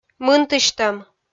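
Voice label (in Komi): "мынтысьтӧм", Komi, female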